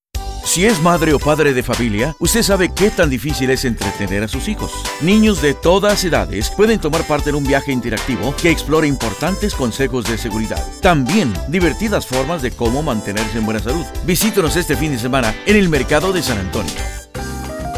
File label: Spanish, males